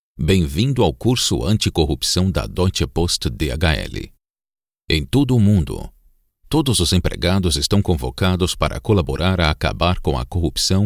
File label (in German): PT BRA Kommentar Neutral